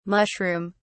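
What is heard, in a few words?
"mushroom(s)", British English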